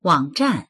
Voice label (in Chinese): "wang3zhan4", voices